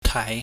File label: "taai", Chinese